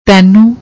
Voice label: "tainu", Punjabi; ਤੈਨੂੰ